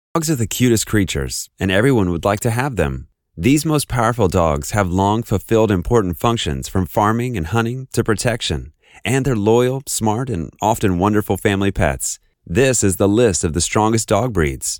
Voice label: 外籍男英 外语 美式英语 纪录片

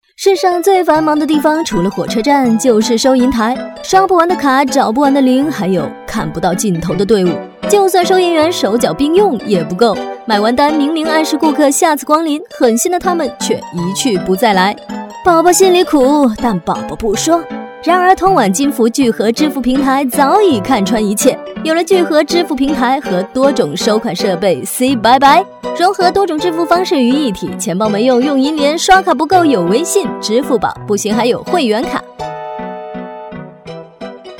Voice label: 宣传片 病毒 产品介绍 聚合支付

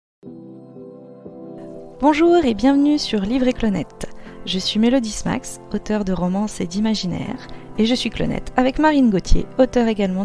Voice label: 法语女 外语 时尚; 小语种

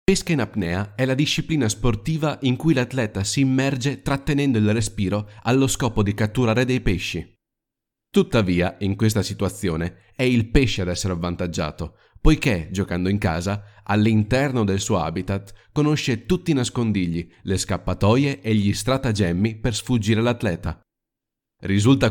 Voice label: 意大利语男 外语 小语种

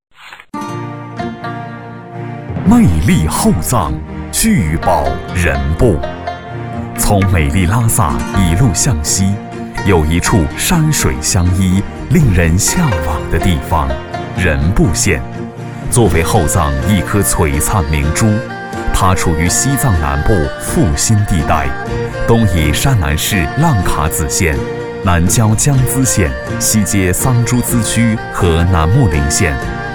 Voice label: 男国 宣传片 旅游 舒缓; 西藏旅游

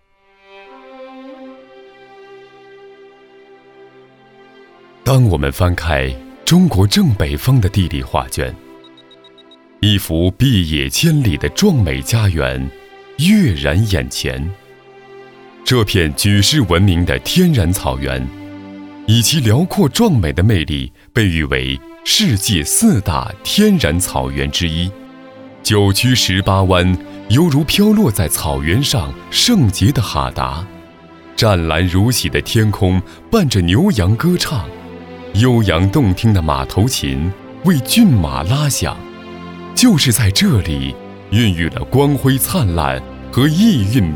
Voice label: 宣传片 企业 公路 浑厚, 男

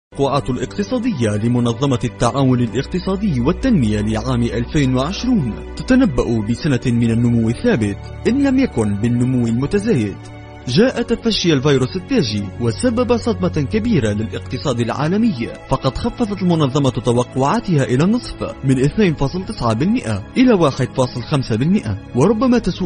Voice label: 阿拉伯语男 外语 小语种

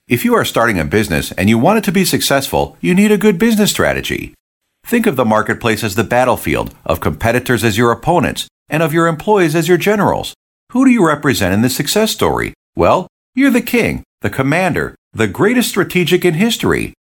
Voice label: 外籍男英 外语 美式英语 样音

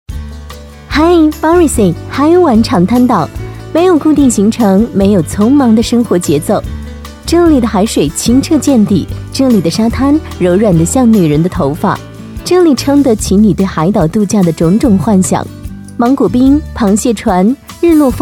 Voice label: 优雅; 广告; 旅游; 度假区

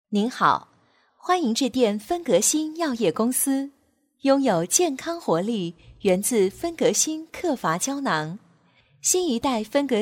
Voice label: 彩铃 药业公司

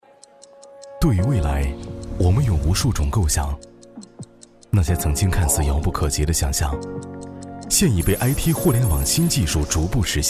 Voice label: 男 广告 时尚; 互联网; IT公司

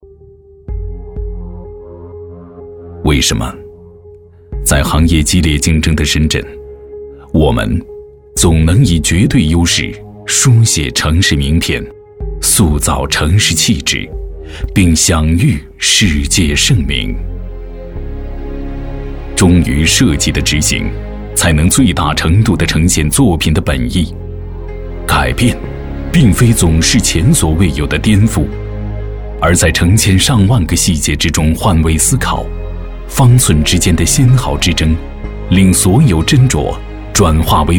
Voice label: 男国 宣传片 企业 沉稳; 亚泰宣传片

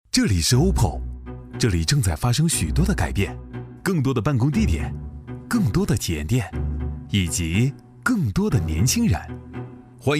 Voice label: 男 广告 活力; 手机通信; OPPO手机

